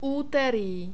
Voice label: "utery", Czech